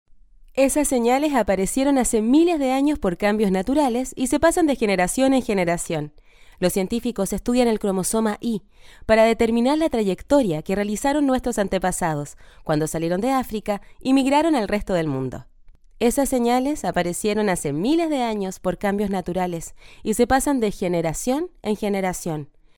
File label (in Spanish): chilean voiceover locutora chile; locutora de Chile; Locutoras de Chile; locutores chilenos